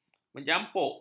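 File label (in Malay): "menjampuk", Malay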